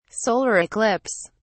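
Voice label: "solar eclipse", English, British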